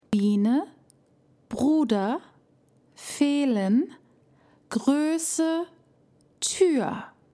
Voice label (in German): Aussprache öü; DaF